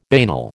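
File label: "banal", American English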